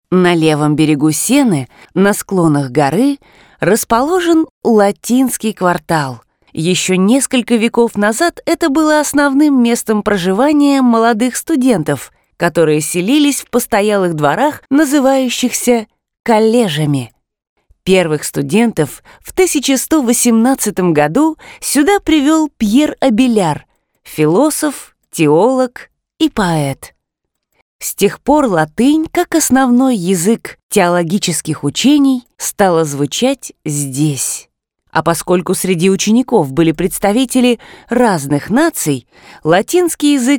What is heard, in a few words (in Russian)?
Audiogid; Чтец, диктор